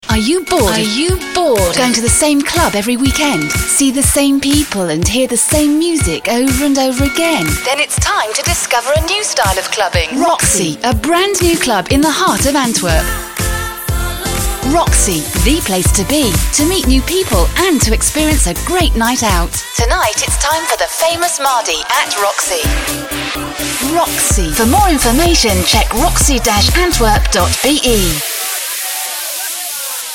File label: radio commercial for nightclub in Belgium